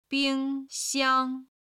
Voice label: "bing1 xiang1", Chinese

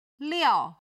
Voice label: "liao4", chinese

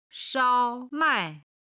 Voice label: "shao1 mai4", Chinese

